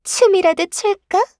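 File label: acceleration Line, Voice Line, Korean; Eternal Return; Garnet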